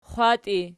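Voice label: "xwatii" nominative, Archi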